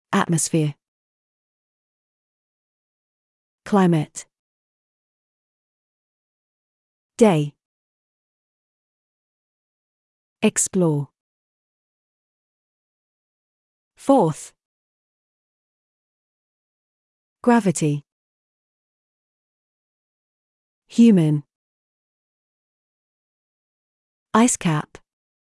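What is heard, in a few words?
British; mars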